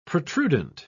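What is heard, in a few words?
"protrudent", British English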